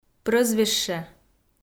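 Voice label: "прӧзвище", Komi, female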